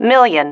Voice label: "million", female